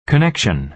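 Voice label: "connection", American English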